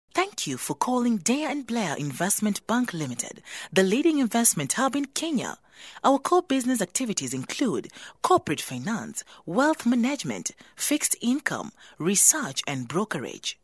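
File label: English Afrikaans IVR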